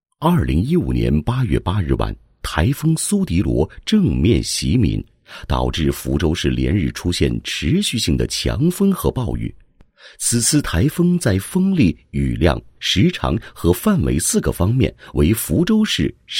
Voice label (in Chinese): 男 纪录片解说; 筷子豪情餐厅浴水重生 纪录片